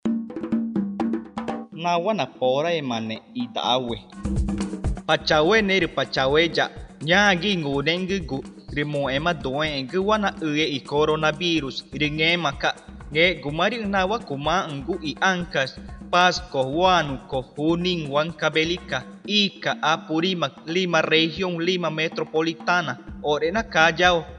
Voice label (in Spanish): ticuna, spot de radio; nuevas medidas extremo; precauciones al salir de mi comunidad